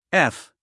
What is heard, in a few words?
"f", us guy